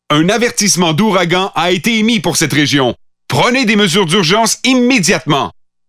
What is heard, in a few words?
Pathfinder French Standard Canadian